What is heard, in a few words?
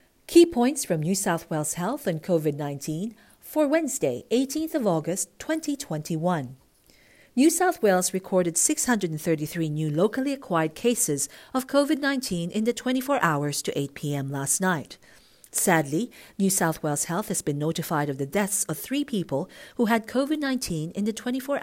english